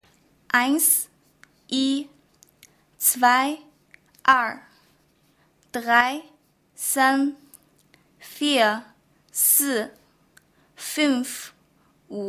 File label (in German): Deutsch Chinesisch